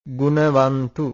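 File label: "gun avantu", Pali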